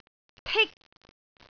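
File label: "tik1", Cantonese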